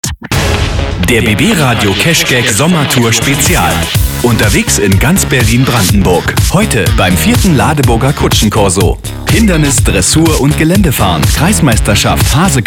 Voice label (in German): Radiospot; Kutschencorso Meerfischland